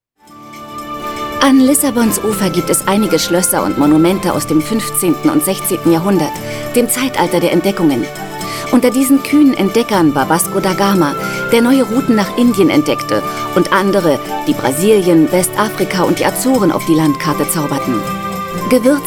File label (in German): deutsch frauen sachtext; sprecher